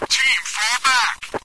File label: fall back, radio; cstrike; Source engine